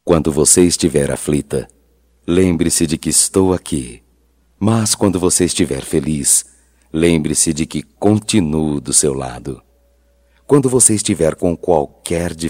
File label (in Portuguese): Romântica Masculino, Só Voz; Telemensagens; Telemensagens 2017